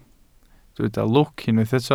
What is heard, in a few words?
"lwc", Welsh